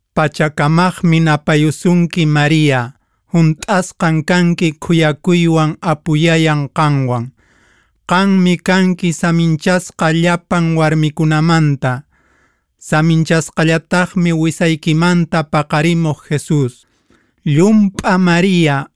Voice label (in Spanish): ave maria quechua normal